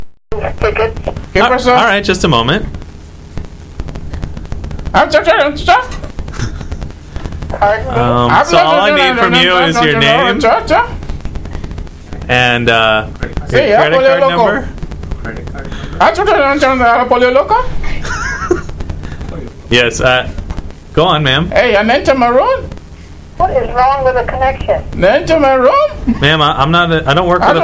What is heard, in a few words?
gibberish, calls